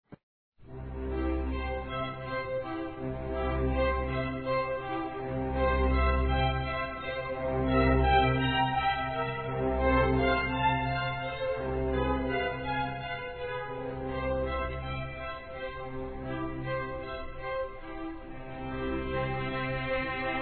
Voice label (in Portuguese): ORAÇÃO PELOS AMIGOS, Amizade Masc; Telemensagens